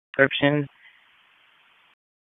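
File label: Police District Dispatch, p25; wake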